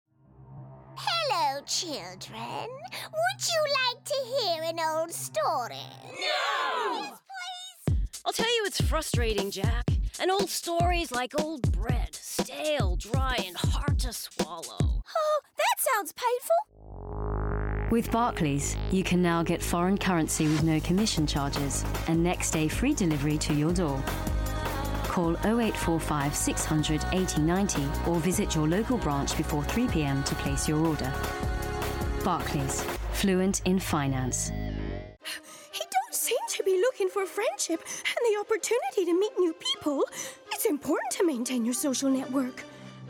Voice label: Character

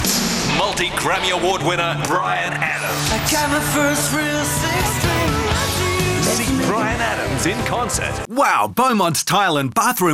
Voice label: Hard Sell

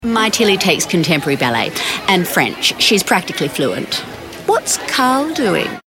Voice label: Posh Mum

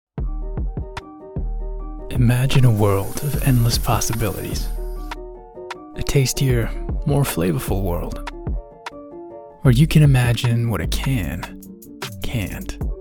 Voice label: US accent

